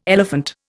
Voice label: "elephant", Indian

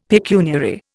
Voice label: "pecuniary", Indian; Dictionary

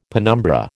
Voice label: "penumbra", American

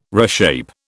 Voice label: "reshape", British

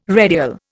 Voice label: "redial", Indian

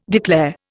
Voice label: "declare", Indian

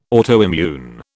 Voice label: "autoimmune", British

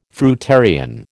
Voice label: "fruitarian", American

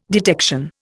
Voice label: "detection", Indian

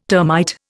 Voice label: "termite", Indian

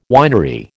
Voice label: "winery", American

